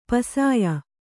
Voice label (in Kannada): "ಪುಸ್ಯ", kannada